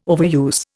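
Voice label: "overuse", Indian English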